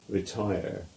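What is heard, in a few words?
"Retire" English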